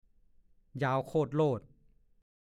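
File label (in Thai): "ยาวโคดโลด" ภาษาโคราช; ยาวเฟื้อย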